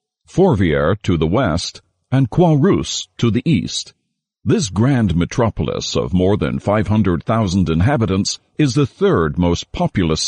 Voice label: 英式男声

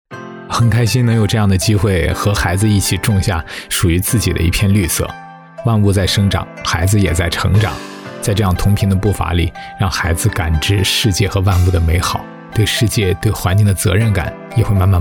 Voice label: 男-素人说话【爸爸】, 浑厚大气 素人自然

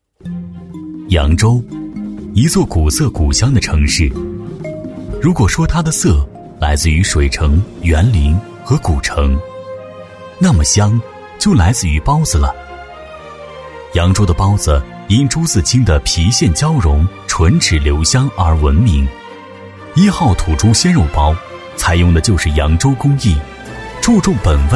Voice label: 男 舌尖风格 娓娓讲述; 男B44-明亮硬朗; 舌尖感

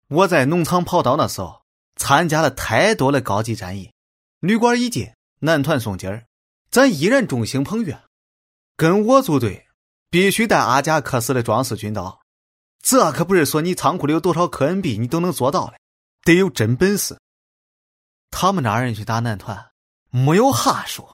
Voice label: 男 方言河南话 模仿大青蛙; 男C1-年轻质感 素人自然

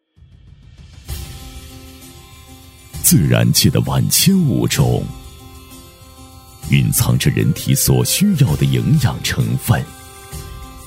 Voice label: 男 浑厚宣传; 喊麦激情

